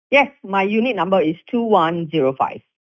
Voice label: unit number, Malaysian